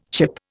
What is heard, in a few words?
"chip", Indian